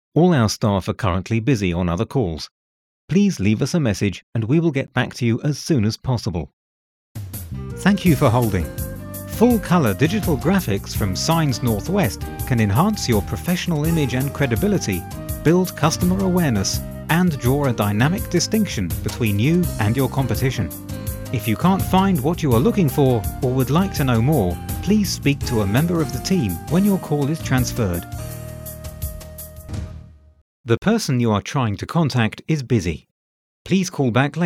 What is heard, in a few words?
english british ivr